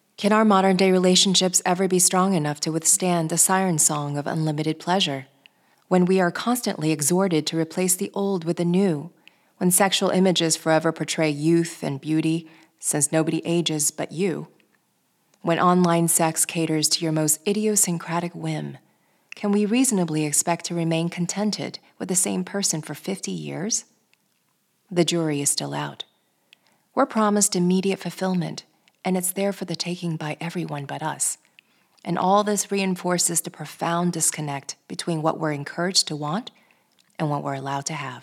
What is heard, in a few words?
Nonfiction Narration